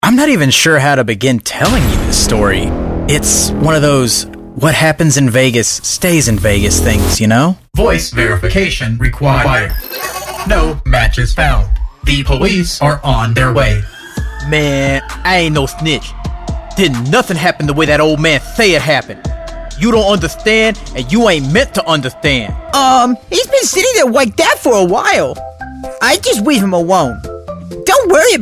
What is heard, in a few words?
Character